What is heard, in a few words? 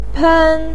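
"pen1", Mandarin pinyin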